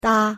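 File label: pronunciation "搭", Chinese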